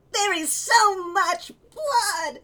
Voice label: Male Reactions

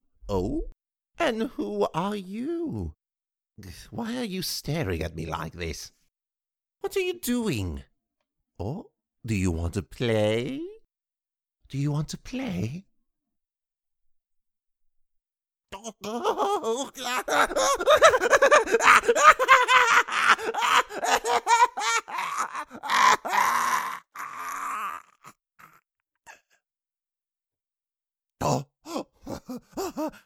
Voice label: Voices ending monologue

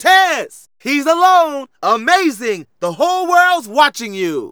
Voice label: Narration; Ollie King

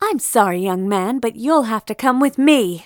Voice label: nurse female busted

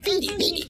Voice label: Lose Voice; Pinguinos Biri Game; Android

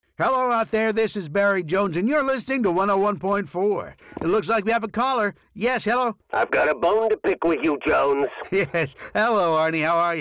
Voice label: Classic Vibes 101.4 (Commentary 1)

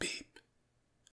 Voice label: Voices quiet; the looker

